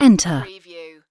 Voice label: "enter", UK female; lynne publishing